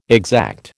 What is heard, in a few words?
"exact", American